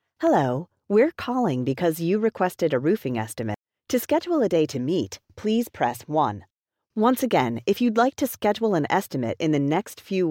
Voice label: Roofing IVR